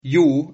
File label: yes, Sylt Frisian